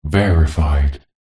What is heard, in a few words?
science fiction computer voice says "verified"; zapsplat